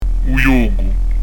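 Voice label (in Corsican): u ghjocu, Corsican; dizco grammatica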